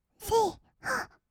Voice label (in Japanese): "せい は", kawaii voice; 音ゲー